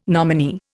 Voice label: "nominee", Indian

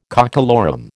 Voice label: "cockalorum", American

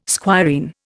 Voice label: "squireen", Indian

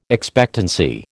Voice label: "expectancy", American

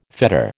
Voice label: "fetter", American

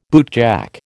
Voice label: "bootjack", American

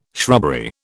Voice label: "shrubbery", British

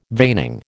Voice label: "veining", American

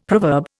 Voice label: "proverb", Indian; Dictionary

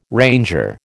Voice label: "ranger", American

